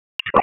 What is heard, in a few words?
Police North District Tac 1, p25; Raleigh; wake